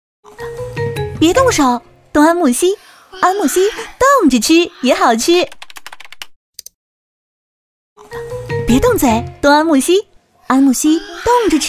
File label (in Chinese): 女 品牌广告; 安慕希